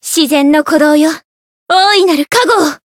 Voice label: Voice variable chant, jp; veina

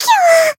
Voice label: damaged jp

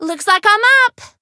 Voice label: variable chant

